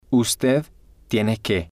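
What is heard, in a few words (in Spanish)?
"ud tiene que", Spanish, male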